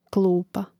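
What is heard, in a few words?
"klupa", Croatian; Mrežnik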